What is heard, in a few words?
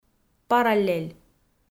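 "параллель", Komi, female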